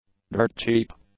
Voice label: "dirt-cheap", english; adjectives